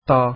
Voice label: "ta", Arabic alphabet